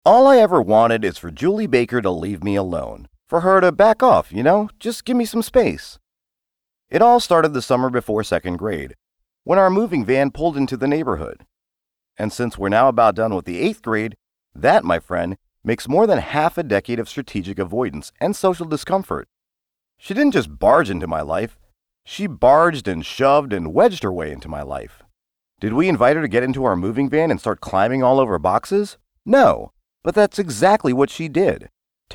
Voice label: Audio books, American; USA

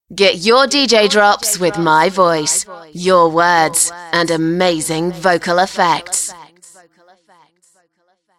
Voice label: Loud; Voice-over demos; DJ Imaging; drops; MediaMusicNow

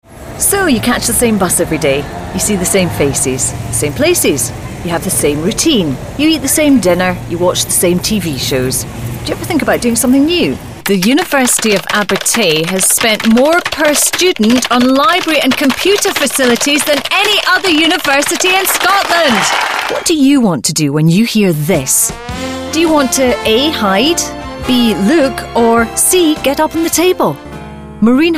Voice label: Adverts; Voice-over demos; TV Radio Advert

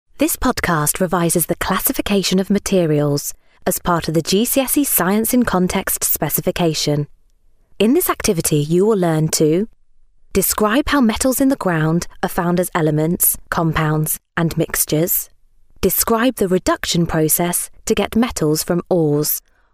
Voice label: Corporate, UK; Voice-over demos

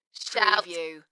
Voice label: "Shout", UK female; dance; beanstalk audio

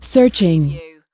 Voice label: US female searching; command; lynne-publishing

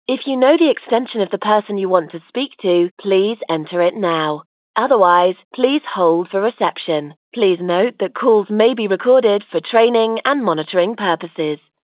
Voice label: IVR Sample 8k ULAW; On-Hold-Formats

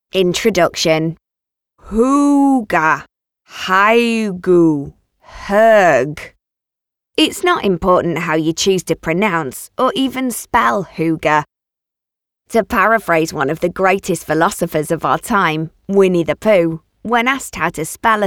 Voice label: Audio books; Voice-over demos; England

